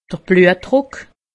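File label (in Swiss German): "bluetdruck", Alsatian; medecine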